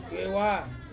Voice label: "viewa", Ansus; wet